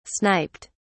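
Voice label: "sniped", British English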